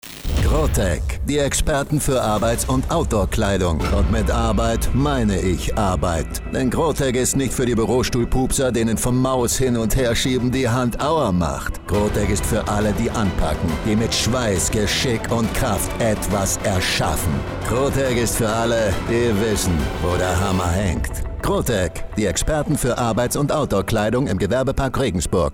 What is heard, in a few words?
outdoor; grotec